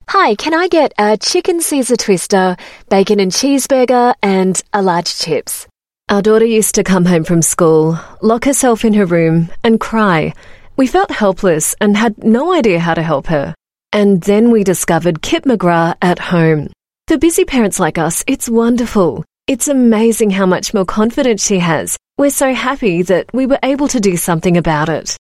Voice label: AU MidAtlantic English Character Voices, Australian Female